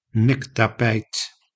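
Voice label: "nektapijt", Dutch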